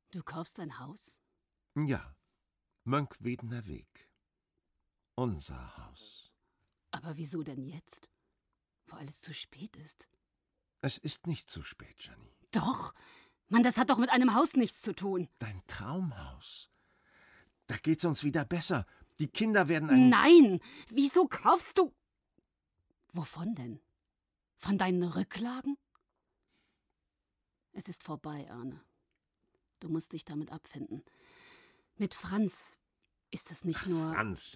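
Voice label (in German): Hörspiel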